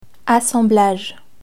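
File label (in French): "assemblage" French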